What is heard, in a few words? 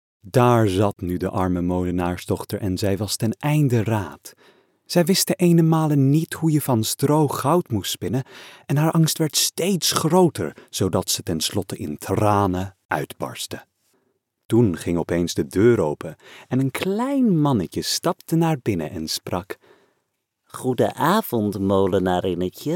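Narrative, Dutch; voice demos; GoLocalise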